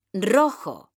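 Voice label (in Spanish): "rojo", female; mekanta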